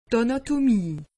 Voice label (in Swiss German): "anatomie", alsace; medecine